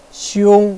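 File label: "xiong1", Chinese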